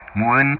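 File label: "moving", English; Ka-50; DCS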